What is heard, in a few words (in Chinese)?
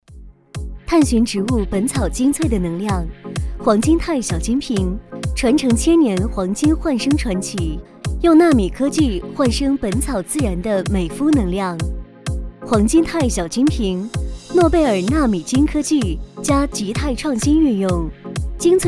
女 化妆